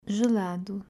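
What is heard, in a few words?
"Gelado", Portuguese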